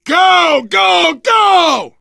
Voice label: emphatic go, survivor voice

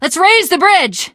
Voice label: hitting bridge button, teengirl; video game; Left 4 Dead; Source Engine; survivor

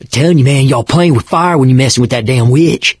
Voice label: witch getting angry, survivor voice mechanic; source engine; left 4 dead 2

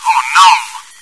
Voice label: oh no sad, bot; Source Engine; cstrike

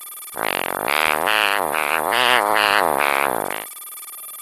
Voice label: egg impatient

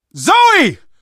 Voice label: hunter pounced, survivor voice; Left 4 Dead 2; Source Engine; video games; manager

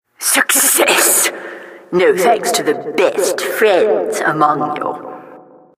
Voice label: announcer success; source engine; tf

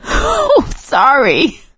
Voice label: sorry, survivor voice; source engine; left 4 dead 2; producer

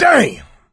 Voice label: reaction negative; voice; source engine; left 4 dead 2

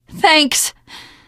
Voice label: thanks, teen girl

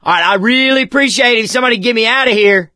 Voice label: call for rescue, survivor voice

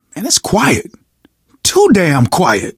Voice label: reaction apprehensive, survivor voice, manager; source engine; left 4 dead 2

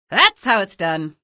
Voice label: kill, female; video games; npc; source engine; episodic; episode 1

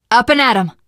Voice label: revive friend, survivor voice teen girl

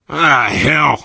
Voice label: swear, player survivor voice biker; source engine; left 4 dead 2

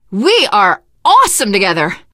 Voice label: hurrah, teen girl, survivor voice; source engine; left 4 dead 2

